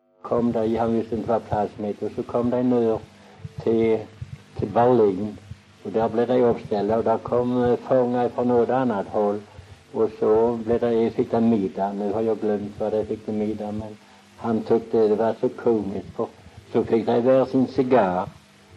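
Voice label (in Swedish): Väsby Skåne, Svenska sagor dialekter; Folkminnen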